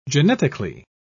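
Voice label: "genetically", British English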